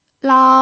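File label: "lao", Mandarin Chinese pinyin, tone 1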